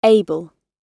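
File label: "able", British English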